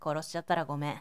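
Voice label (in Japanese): "korosityattarakomen", Japanese boy; shonen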